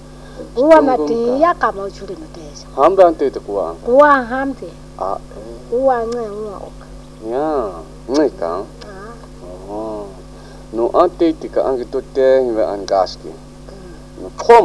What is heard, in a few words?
Khoisan Conv